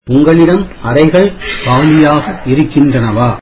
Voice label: tamil Do you have rooms available